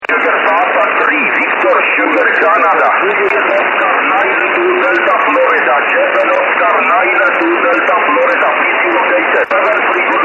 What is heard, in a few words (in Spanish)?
en ES, llegando bastante bien